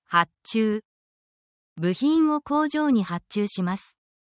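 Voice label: Japanese word, essential Japanese phrases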